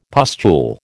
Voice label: "pustule", American